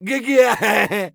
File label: scav mutter, voice; Escape from Tarkov; scav2